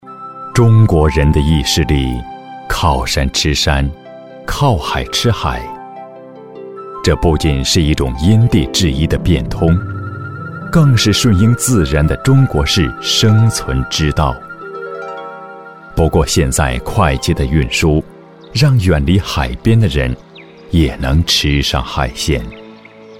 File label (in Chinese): 男 纪录片 柔和 温馨; 饺子